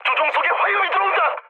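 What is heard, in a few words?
Korean on fire mood med; speech; War Thunder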